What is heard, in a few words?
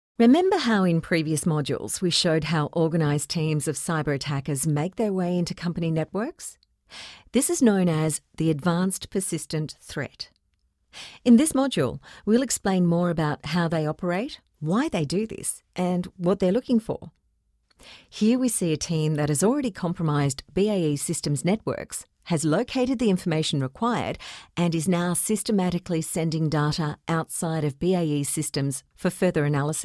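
English Australian, e-learning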